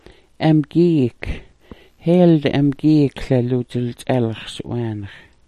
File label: "amgyiik", Smalgyax